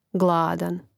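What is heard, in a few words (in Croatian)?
"gladan", hrvatski; mreznik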